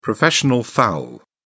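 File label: "professional foul", English, British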